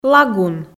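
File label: "лагун", Komi, female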